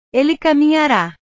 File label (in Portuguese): "ele caminhara", portugues